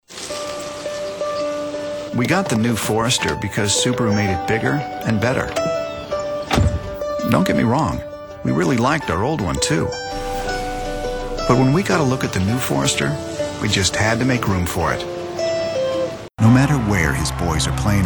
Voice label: commercial